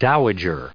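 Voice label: "dowager", US English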